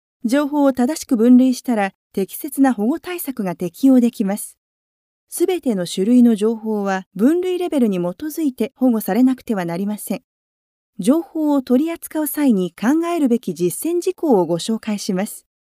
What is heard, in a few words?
japanese female voiceover locutora japonesa